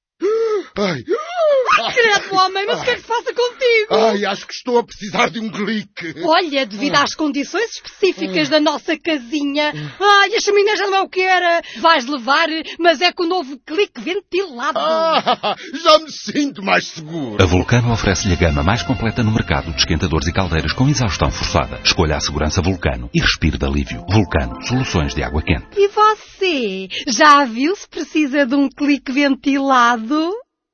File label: radio spot; Spot Click Ventilado; seguranca